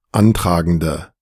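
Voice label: "antragende", German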